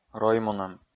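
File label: "роймунӧм", Komi, male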